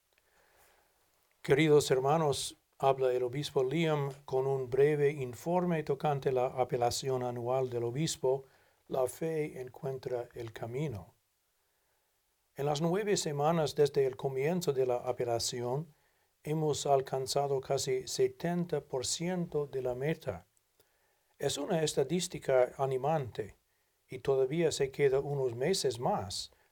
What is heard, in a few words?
Spanish; BAA 22 Update